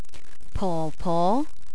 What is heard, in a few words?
"popo", Cantonese; address